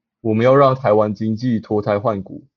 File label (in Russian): Голоса, Тайваньский